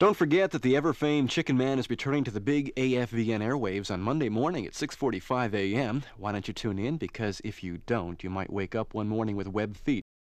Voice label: Radio Spot: Railroad Crossing Advisory / Warning; And Chicken Man Warning. Mono